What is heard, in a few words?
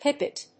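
"pipit", English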